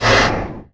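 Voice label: MONSTER ZOMBIE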